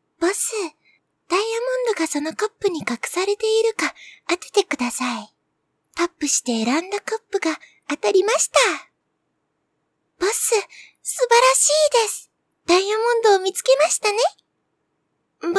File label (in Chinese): 日语女 游戏角色模仿